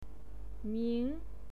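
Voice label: "ming", Mandarin Chinese, second tone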